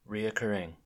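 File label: "reoccurring", US English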